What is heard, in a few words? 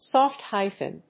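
"Soft hyphen", English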